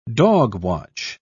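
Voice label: "dogwatch", British English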